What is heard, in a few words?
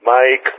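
"Mike", spoken; Ka-50; Alphabet; Mike-begin; Speech; DCS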